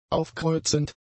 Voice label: "aufkreuzend", German; adjectives